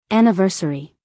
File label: "anniversary" US English, female; everyone-can-use-english